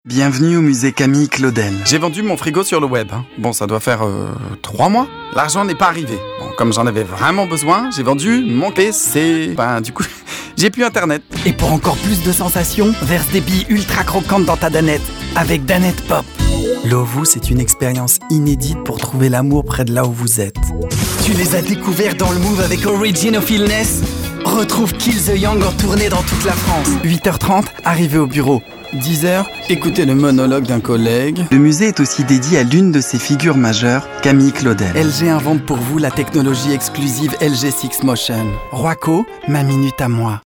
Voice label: commercial; Comédien voix à Paris en langue française. Voix jeune / jeune adulte, douce dynamique